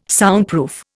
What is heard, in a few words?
"Soundproof", Indian